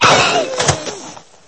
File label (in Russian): muzhskoj golos